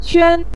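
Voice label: "quan", Mandarin Chinese, neutral tone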